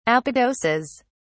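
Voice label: "apodoses", British English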